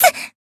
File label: damaged, jp